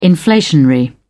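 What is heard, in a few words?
"inflationary", British English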